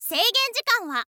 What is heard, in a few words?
info girl "seigenjikan ha"